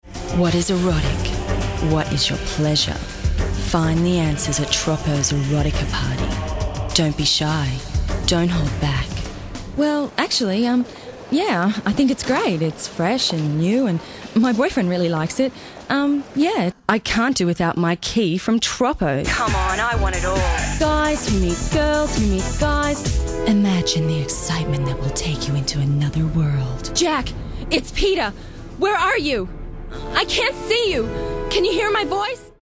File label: Commercial Australian Female; commercial voiceover